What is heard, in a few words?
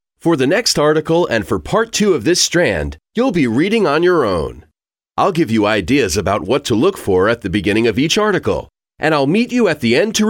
e learning, en-US